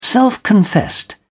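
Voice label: "self-confessed", British English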